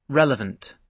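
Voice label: "relevant", British English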